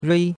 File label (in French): "rui1", chinois; Cours de Chinois